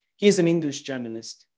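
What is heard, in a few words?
Voice Acting, Spanish, male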